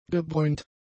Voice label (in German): "gebräunt", German; adjectives